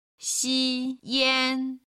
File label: "xiyan", Chinese